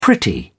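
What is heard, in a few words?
"pretty", British English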